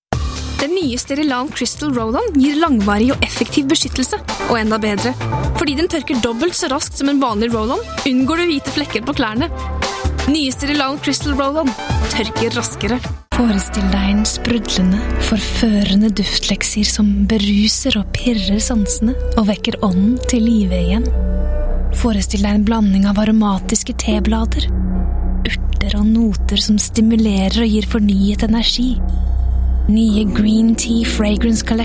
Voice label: Norwegian